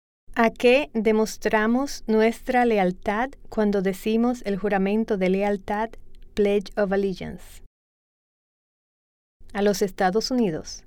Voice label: Spanish